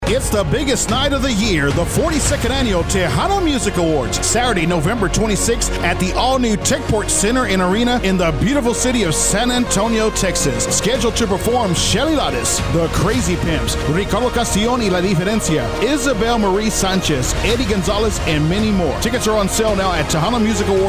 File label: spot